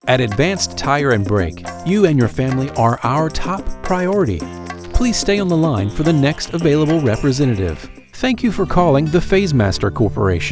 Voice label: IVR